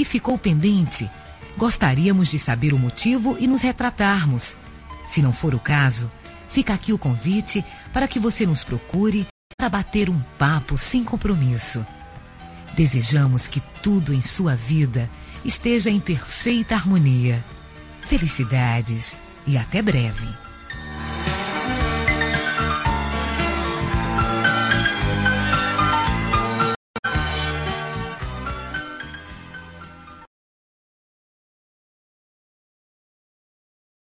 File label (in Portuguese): EMPRESAS CHAMANDO CLIENTE DE VOLTA FEM, Telemensagens Empresarial; Telemensagens 2017